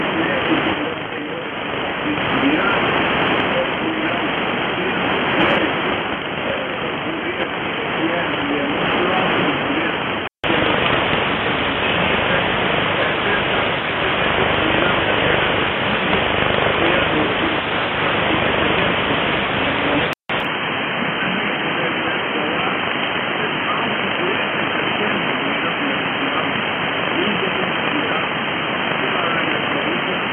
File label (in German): 21690KHz, Radio France auf 21690KHz in Portugiesisch; Alle drei Empfänger praktisch auf Augenhöhe.; NRD545; IC-R8600; G33DDC